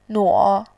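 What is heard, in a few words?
"nuo", Mandarin, tone 3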